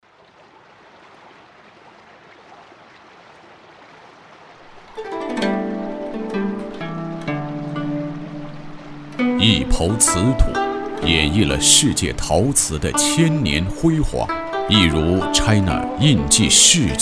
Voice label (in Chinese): 男 城市介绍